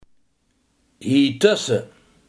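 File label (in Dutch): hiertussen, Limburgse gesproken woordenboeken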